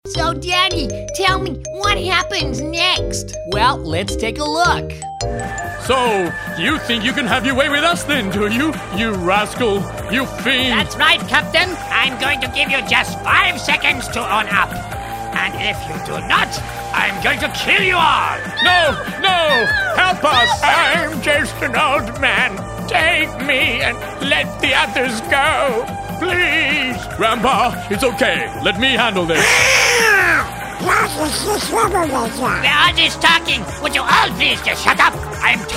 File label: character